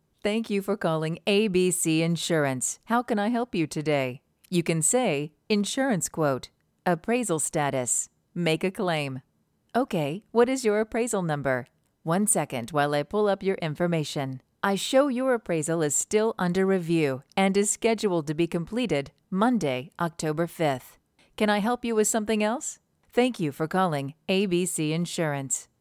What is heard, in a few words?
GM Voices Audition